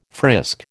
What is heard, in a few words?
"frisk", American